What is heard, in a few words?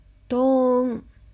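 "doon", Japanese; ドーン – doon; manga onomatopoeia sound effects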